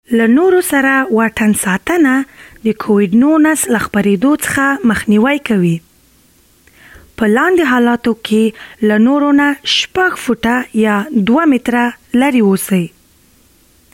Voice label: PSA Pashto; Key Times to Practice Social Distancing; coronavirus